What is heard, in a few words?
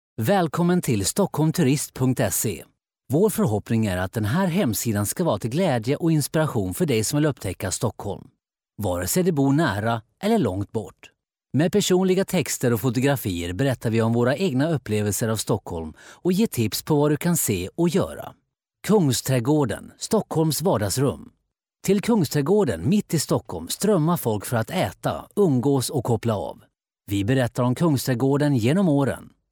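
Swedish male voice over, narrative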